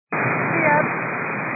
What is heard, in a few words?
122 725MHz, scanner radio